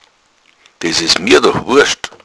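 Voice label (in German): "wurst", Bavarian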